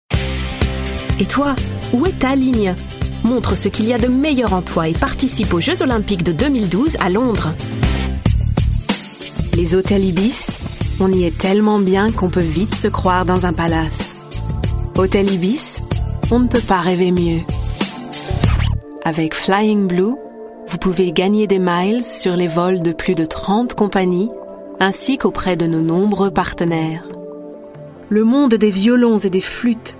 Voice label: French